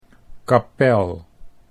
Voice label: "kapel", Dutch